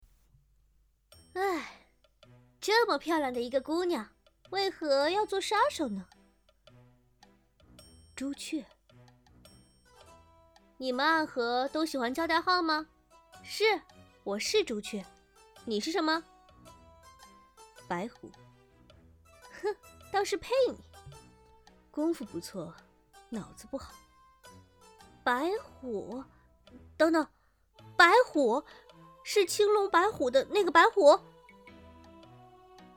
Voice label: 广播剧对话

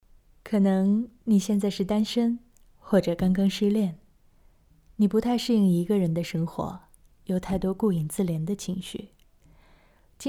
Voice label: 情感

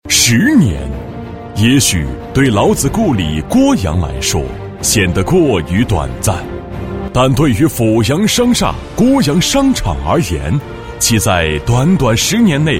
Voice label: 大气专题

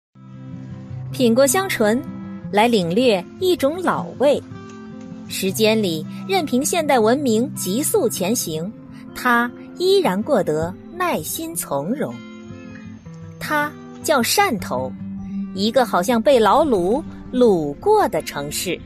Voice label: 记录片解说轻松讲述感

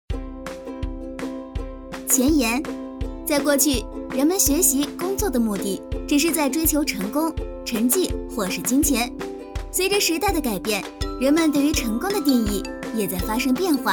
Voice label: 宣传

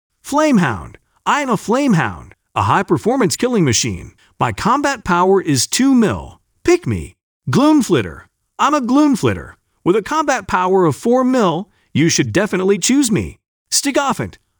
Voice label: 美式男游戏信息流宣传片类

